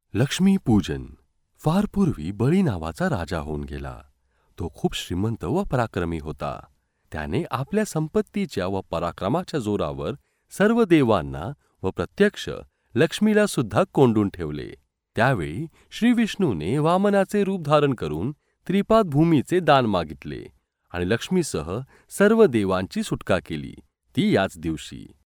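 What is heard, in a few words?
Marathi India male narrative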